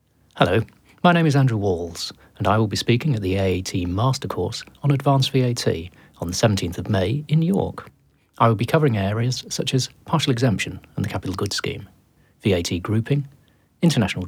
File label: Advert